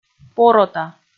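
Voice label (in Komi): "порота", Komi, female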